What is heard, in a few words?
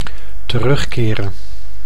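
"terugkeren", Dutch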